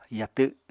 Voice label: "yatw", Apatani; Household Items